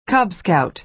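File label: "cub scout", American English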